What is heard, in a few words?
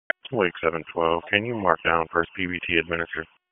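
Sheriff's Office Dispatch 1, p25; wake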